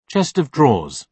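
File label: "chest of drawers", British English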